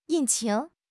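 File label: "引擎", Mandarin Chinese; HSK6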